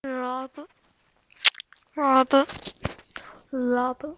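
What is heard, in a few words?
"rub", spoken word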